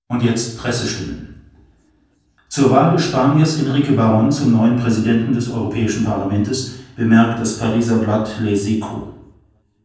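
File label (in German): Sprache Nachhall kurz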